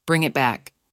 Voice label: female Bring it back